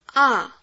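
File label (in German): Phoneme, chinesisch; a 4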